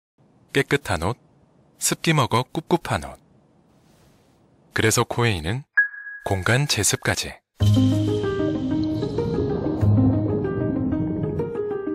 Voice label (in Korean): 차분한 성우; 코웨이